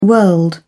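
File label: "world", British English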